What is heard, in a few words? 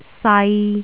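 "sai3", Cantonese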